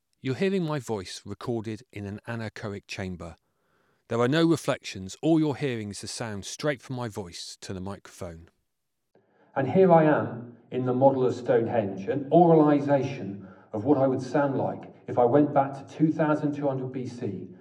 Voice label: speech loudness